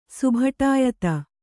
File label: "ಸುಭಟಾಯತ", Kannada; subhaṭāyata; noun; = ಸುಭಟತೆ.